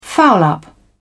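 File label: "foul-up", English, British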